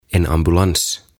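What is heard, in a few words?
"En ambulans", Swedish, "Ambulanssi", Finnish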